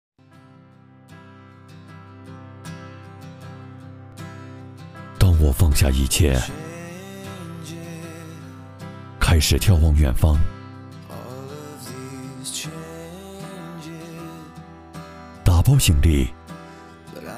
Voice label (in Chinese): 男 品质旁白广告; 阳光车行